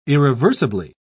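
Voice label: "irreversibly", British English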